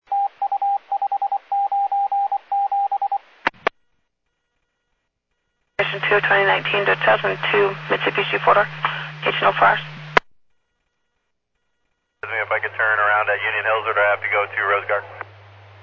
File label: Medevac, scanner; NativeAirAmb